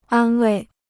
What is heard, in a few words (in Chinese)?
"安慰", Chinese